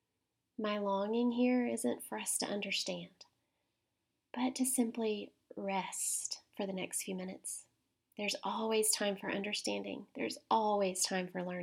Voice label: rainbow chakra guided prayer and meditation